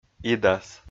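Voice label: "идас", Komi-Zyrian, male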